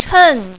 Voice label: "chen4", mandarin, female